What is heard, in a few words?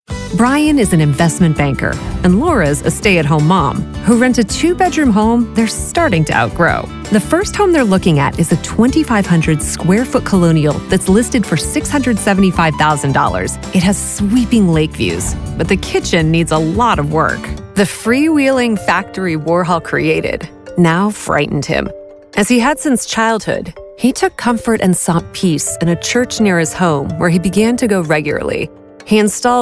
Broadcast Narration